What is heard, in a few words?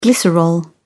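"glycerol", English, British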